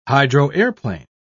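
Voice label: "hydroaeroplane", British English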